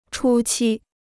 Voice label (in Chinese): "初期", Chinese